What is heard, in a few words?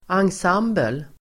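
"ensemble", Swedish; Uttal: [angs'am:bel]; musik- el. teatergrupp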